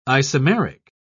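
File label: "isomeric", British English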